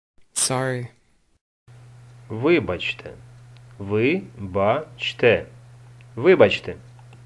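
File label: Sorry, Ukrainian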